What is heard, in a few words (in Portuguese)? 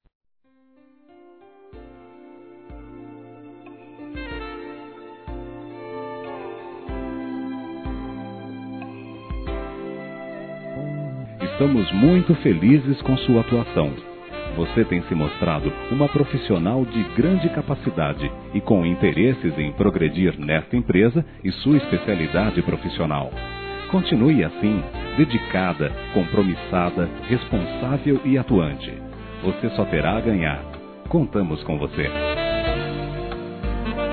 INCENTIVO A FUNCIONARIA M, Telemensagens; Empresarial; masc; Telemensagens 2017